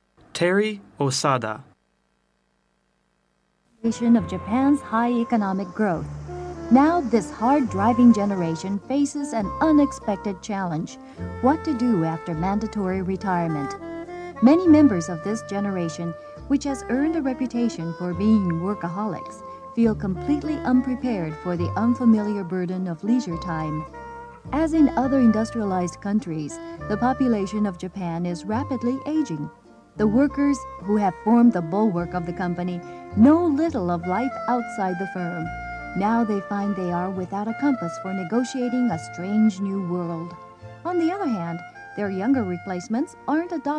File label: usa woman